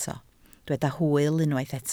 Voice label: "hwyl", Welsh